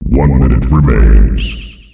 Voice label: 1 minute remains, announcer male; Unreal Tournament; ut2004